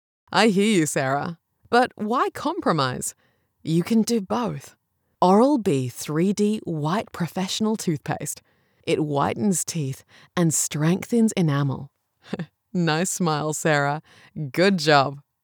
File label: english australian medical narration